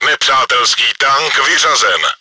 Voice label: enemy killed, cz; Nepřátelský tank vyřazen!; Czech; Вражеского танка больше нет!; World of Tanks